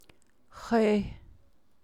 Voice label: navajo; who